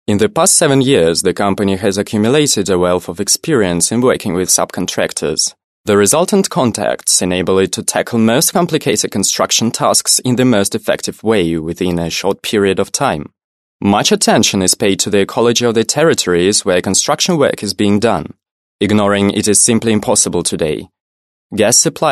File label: british english male